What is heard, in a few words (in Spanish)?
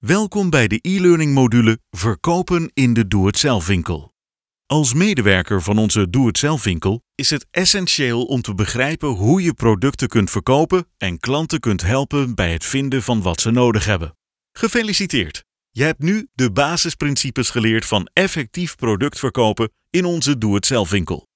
Hablante nativo neerlandés; locutor voz en off